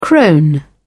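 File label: "crone", British English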